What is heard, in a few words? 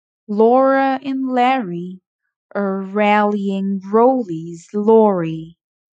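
Tongue Twister l r Combination, pronunciation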